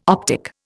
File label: "optic", Indian